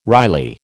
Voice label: "Riley", American